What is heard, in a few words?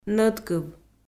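"нӧдкыв" Komi-Zyrian, female; kpv audio dict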